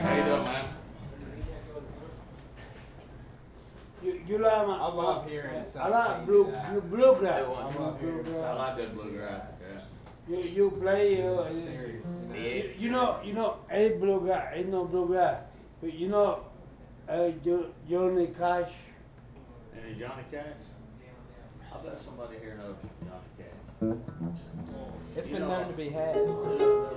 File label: banter drunk Mexican; Bluegrass; Bluegrass Jam